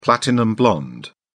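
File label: "platinum-blonde", British English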